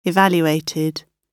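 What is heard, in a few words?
"evaluated", British English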